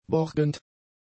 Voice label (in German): "borgend", German; adjectives